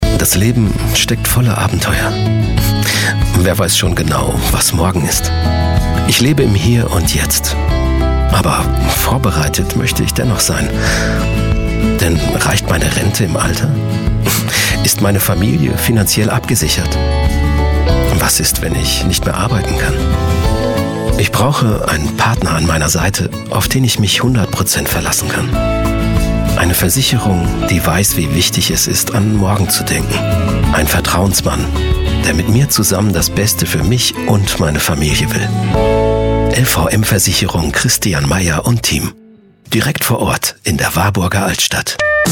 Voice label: german voice over male commercial